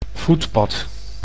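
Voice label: "voetpad", Dutch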